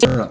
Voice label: Syrup Male garbled